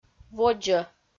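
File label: "водзӧ", Komi, female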